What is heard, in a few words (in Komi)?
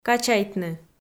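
"качайтны", Komi, female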